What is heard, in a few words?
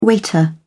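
"waiter", British English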